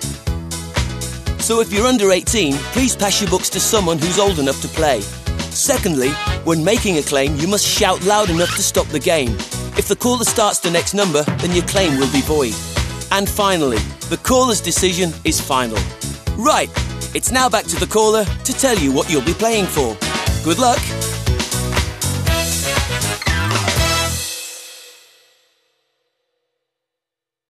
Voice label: Bingo Intro and VO